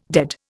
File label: "dead", Indian